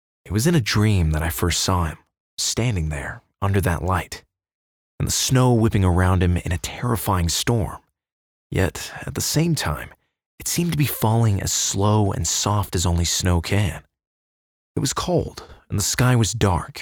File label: english american narration